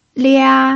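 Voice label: "lia", first tone, Mandarin Chinese pinyin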